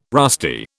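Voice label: "rusty", British English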